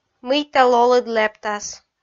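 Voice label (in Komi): "мыйта лолыд лэптас", Komi, female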